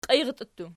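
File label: "qiirtii" plural, Archi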